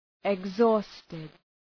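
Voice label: "exhausted", English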